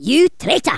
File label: "Traitor", Angry Scots; Worms Armageddon